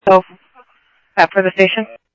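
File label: Phoenix police department, scanner; ThompsonPeakHigh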